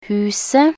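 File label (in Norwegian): "huset", Norwegian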